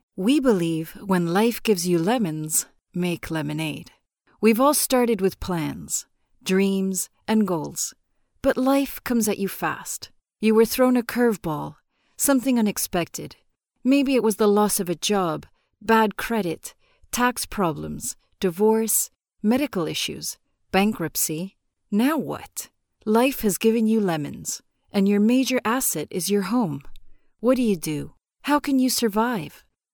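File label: Spanish Latin America female English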